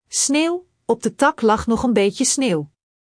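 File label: "sneeuw", Dutch; Spelling Gr4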